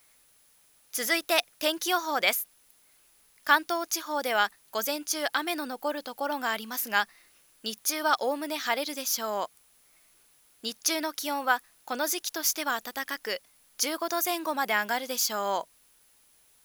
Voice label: speech quiet; jp